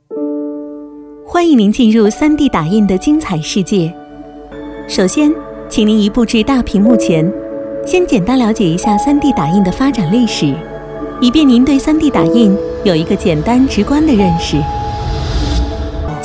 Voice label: 语音导游; 南京3D打印研究院展厅

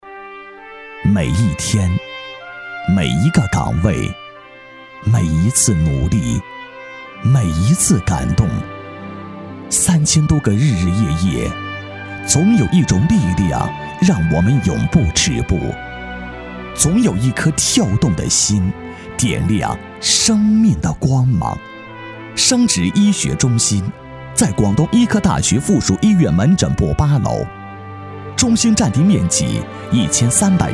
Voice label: 专题 医院 医院宣传生殖医学中心 抒情, 男

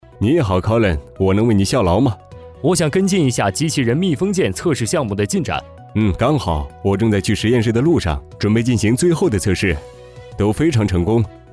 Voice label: 多媒体 培训课件, 男; 特瑞堡密封系统

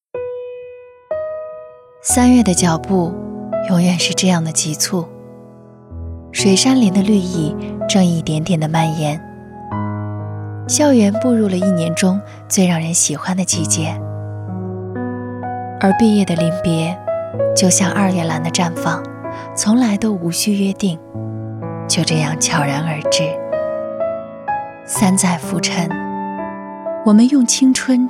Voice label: 毕业典礼 感性独白; 微电影

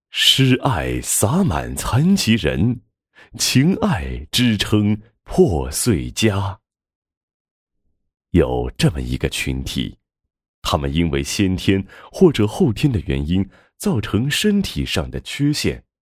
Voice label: 专题 人物 抒情人物; 抒情人物狮爱洒满残疾人

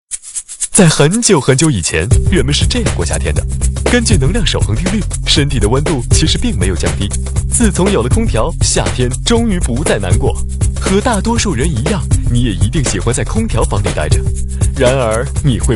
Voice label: 宣传片; 病毒; 家安空调消毒剂

